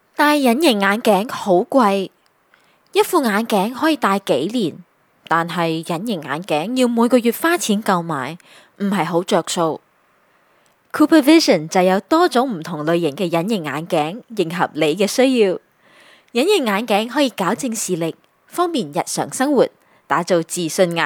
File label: Cantonese Younger